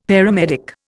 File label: "paramedic", Indian; Dictionary